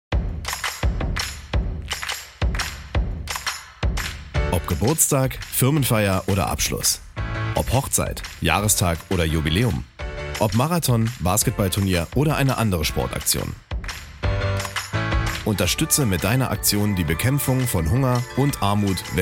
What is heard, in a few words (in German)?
Erklärfilm Spendenaktion; WHH Spendenaktion